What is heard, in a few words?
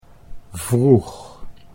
"vroeg", Dutch